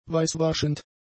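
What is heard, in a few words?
"weißwaschend", German; adjectives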